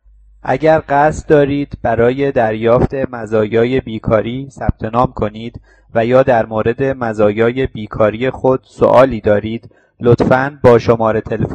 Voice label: farsi